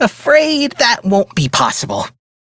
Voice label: Reject, Male; Dialog; Warframe; Railjack Crew; Perrin Sequence